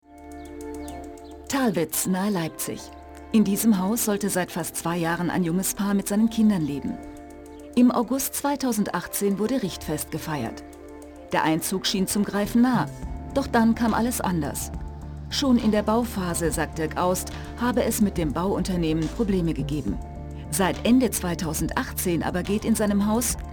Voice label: Doku Reportage; Pfusch am Bau; ZDF

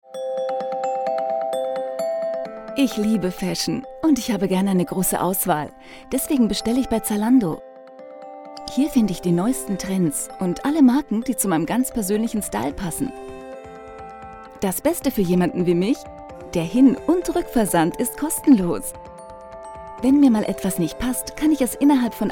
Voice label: Imagefilm; Zalando

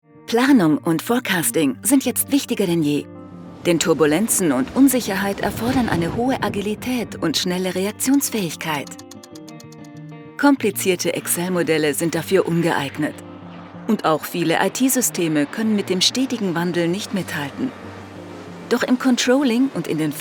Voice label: Erklarfilm plaudernd; Jedox

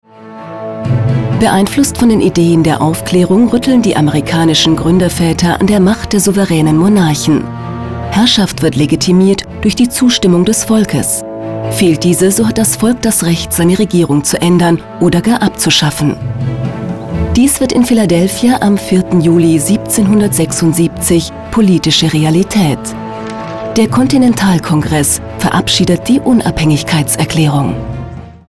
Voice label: Doku Langsschnitt; Revolutionen

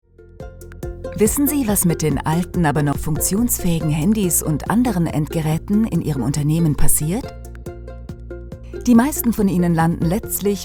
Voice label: Erklarfilm sanfter; o2 Handy Ruckkauf

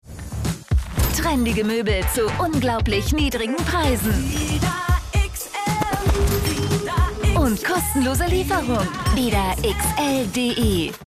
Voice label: Werbung TV; Vida XL

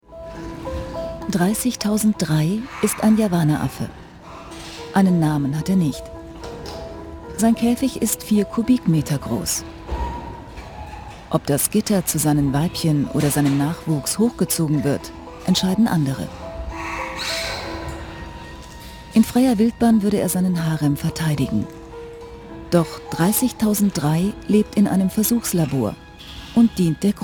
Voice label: Doku; Tierversuche; WDR

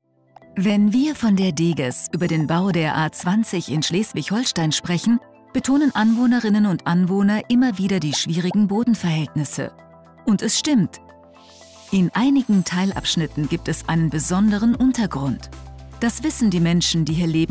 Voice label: Erklarfilm sachlich; Deges

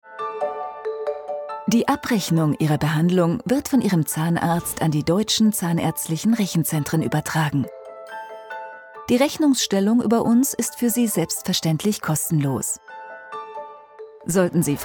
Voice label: Erklarfilm; DZR

